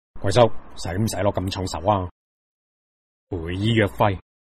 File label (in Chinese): 粤男 角色